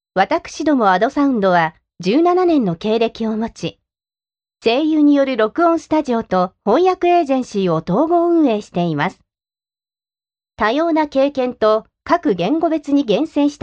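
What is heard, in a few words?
Japanese, female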